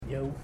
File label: "five", Ipili